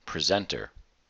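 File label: "presenter", us English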